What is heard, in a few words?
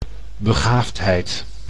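"begaafdheid", Dutch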